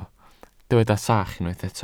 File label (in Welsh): "sach", Welsh